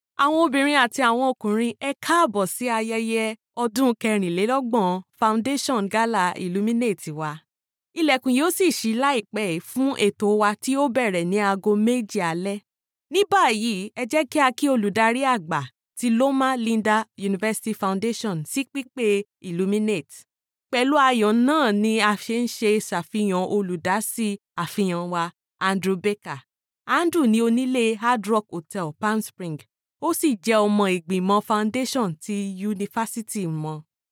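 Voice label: Yoruba, Nigeria, female, voice over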